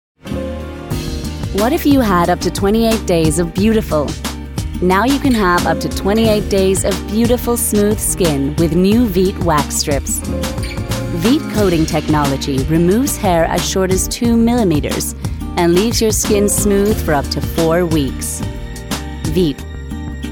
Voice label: advert veet